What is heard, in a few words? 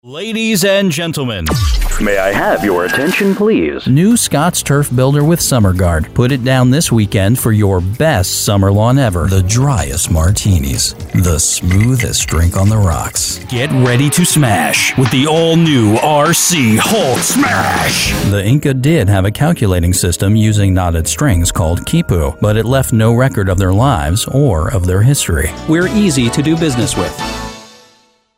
English - American